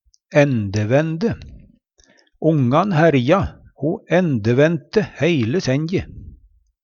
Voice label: endevende, Numedalsmål

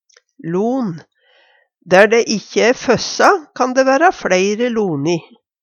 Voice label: lon, Numedalsmål; Substantiv hokjønn

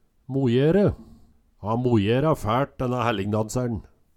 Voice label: mojere, Numedalsmål

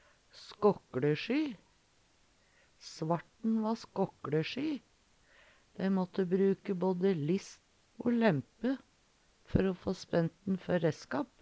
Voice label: skakklesjy, Numedalsmål; Eksempel på bruk Svarten va skåkkLesjy.

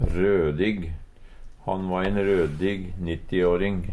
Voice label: rødig, Numedalsmål; Ha va ein rødig 90 åring.; Adjektiv

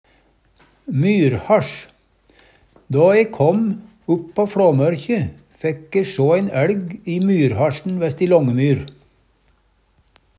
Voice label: myrhasj, Numedalsmål

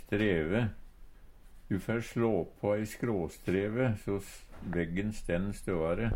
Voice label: streve, Numedalsmål; Substantiv hokjønn

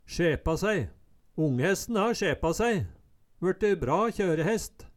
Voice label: sjepa sæi, Numedalsmål; Eksempel på bruk Onghesten har sjepa sæi, vørte bra kjørehest

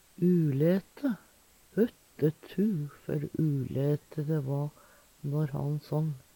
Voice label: "ulæte", Numedalsmål; Huttetu, før ulæte dæ va når han sång.

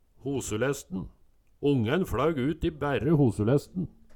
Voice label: hosulesten, Numedalsmål, Ongæn fLaug ut i bærre hosulesten

